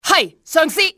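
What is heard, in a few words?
yes sir, cantonese, female; XBOX; enemy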